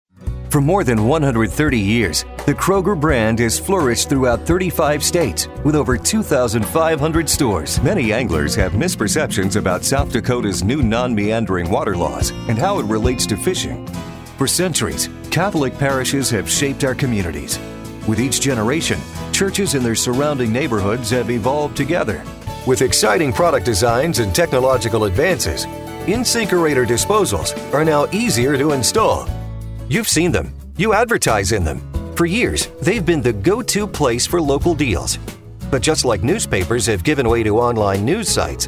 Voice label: Narration Male, Narration, Corporate, Documentary